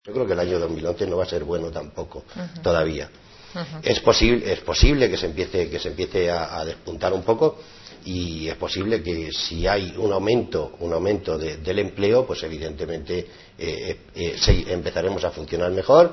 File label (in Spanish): noticias